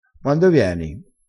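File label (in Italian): "uando", vocabolario dialettale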